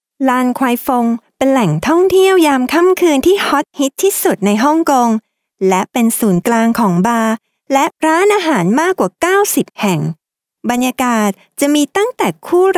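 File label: Thai female corporate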